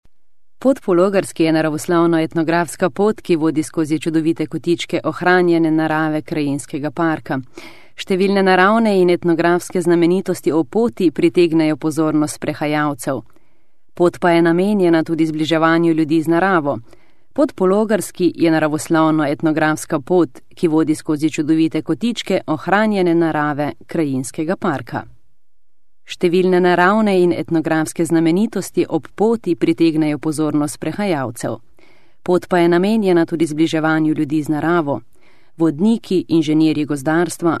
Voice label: Slovenian F Narration

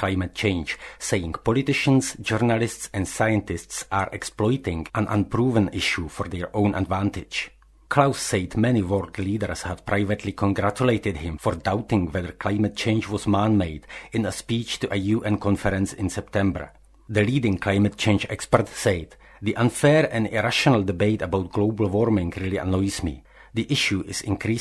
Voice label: Czech Eng M Narration, accent of czech republic; voice over

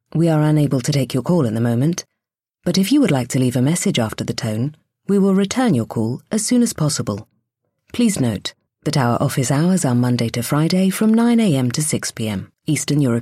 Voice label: English, F, IVR

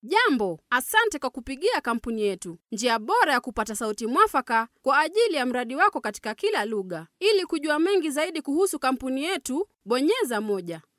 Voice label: Swahili F Narration; voice-over

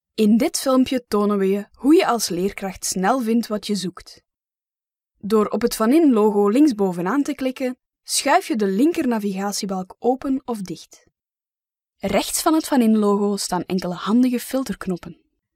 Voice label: Flemish F eLearning; voice over

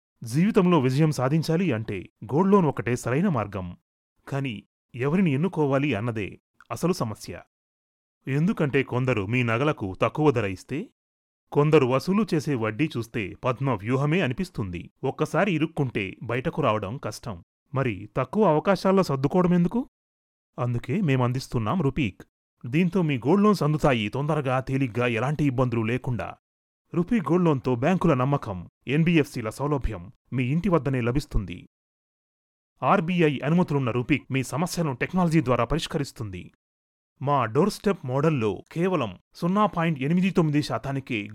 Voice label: Telugu M

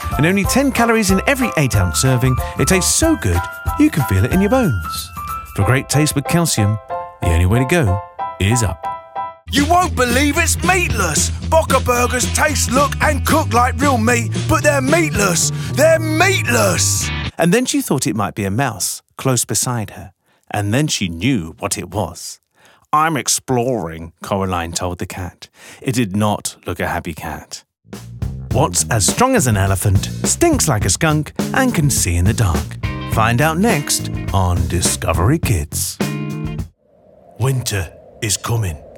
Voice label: English, M, Commercial; advertising voice over

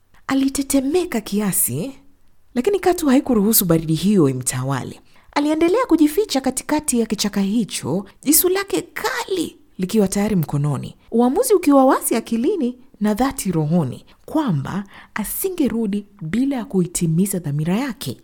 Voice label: Swahili F Corporate; voice-over

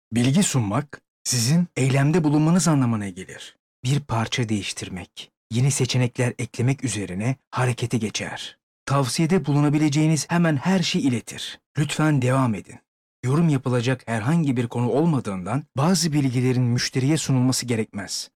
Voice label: Turkish M Informative; voice-over

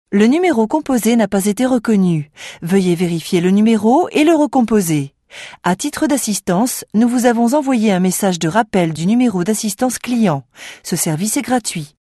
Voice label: French EU IVR, ivr voice prompts

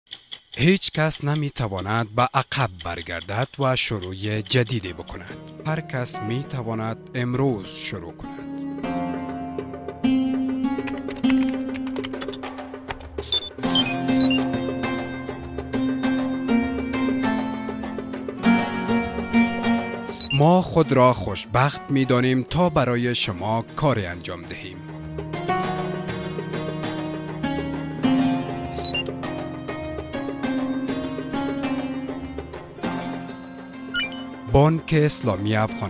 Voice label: Dari, M

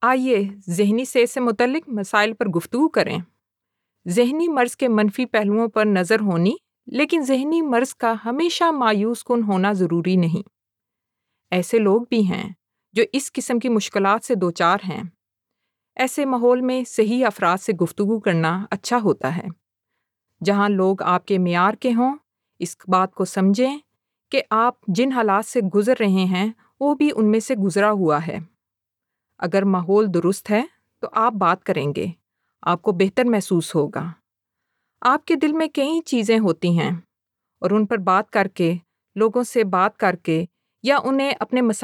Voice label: Urdu F Corporate; voice-over

